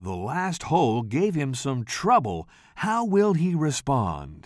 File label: commentator